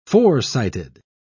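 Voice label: "forecited", en, uk British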